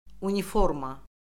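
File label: "uniforma", Azerbaijani; Orfoqrafiya Lüğəti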